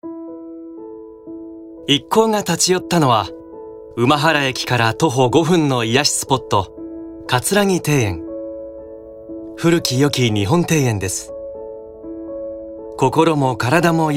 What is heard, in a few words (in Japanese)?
ノーマル